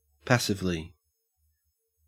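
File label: "passively", Australian English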